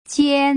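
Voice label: "jian", Mandarin Chinese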